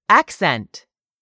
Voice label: "accent", English; 3000 words